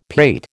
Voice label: "pate", American

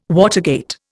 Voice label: "watergate", Indian

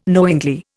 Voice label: "knowingly", Indian

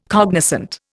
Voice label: "cognoscente", Indian

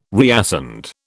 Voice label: "reascend", British

